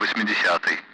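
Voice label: Speech, Russian, 8th; Allied Flight; DCS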